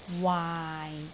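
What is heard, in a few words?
"waai6", Cantonese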